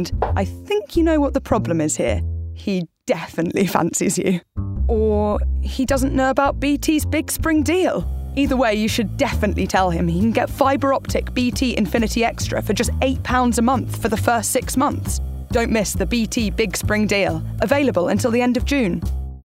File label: COMMERCIAL Broadband; BT Broadband